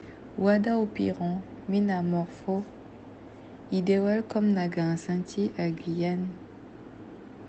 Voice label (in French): Enregistrement en Ndjuka